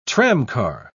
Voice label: "tramcar", pronounce

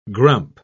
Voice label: "grump", pronounce

